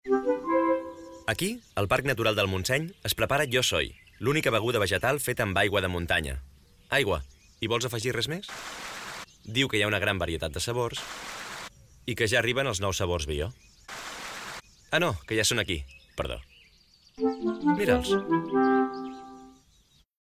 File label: male catalan milk comercial; ideasonora